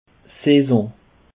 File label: "saison", Alsace